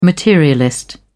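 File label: "materialist", British English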